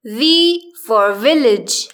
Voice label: v village, English; grade1; identifying letters third